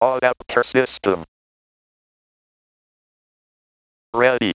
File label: Audapter, synthesizers; Personal Data Systems; vintage-access